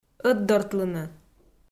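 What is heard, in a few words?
"ӧтдортлыны" Komi, female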